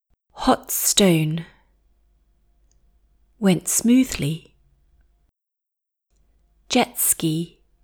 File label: Spanish; The most Common English Pronunciation Errors made by Spanish Speakers